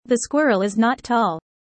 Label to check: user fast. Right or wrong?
Right